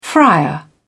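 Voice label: "frier", British English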